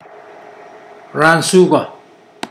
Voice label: branch, Mokilese

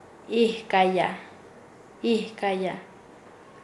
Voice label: Nasa Yuwe; chocar con; paez

